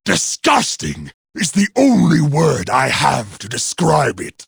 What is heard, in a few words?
jeers; garrosh